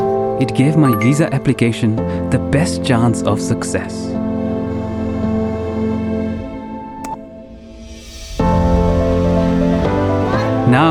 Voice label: male english with indian accent; english northern accents; indian gujurati; ielts commercial